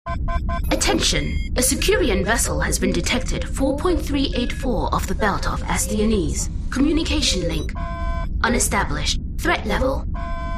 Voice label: english british video games